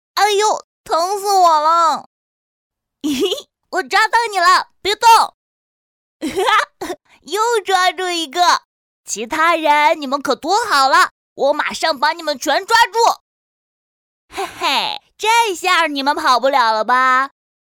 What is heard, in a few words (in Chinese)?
调皮男童音